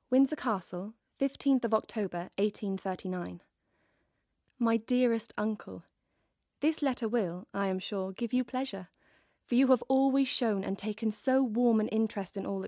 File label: RP Accent